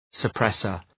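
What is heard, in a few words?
"suppressor", English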